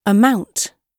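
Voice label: "amount" British English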